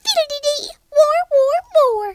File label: victory, Southern Belle; speech